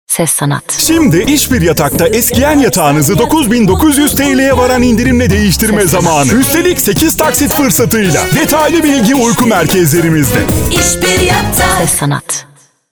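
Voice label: Turkish, seslendirme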